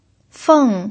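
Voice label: "feng", Mandarin Chinese, fourth tone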